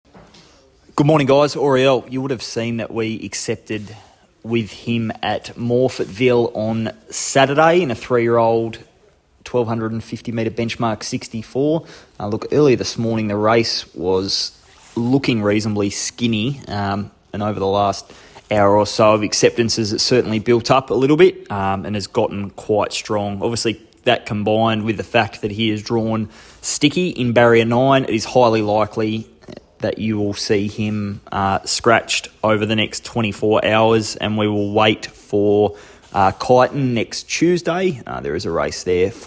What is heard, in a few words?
voice note